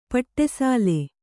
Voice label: "ಪಟಸಾಲೆ", kannada